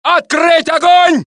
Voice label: Russian; mohaa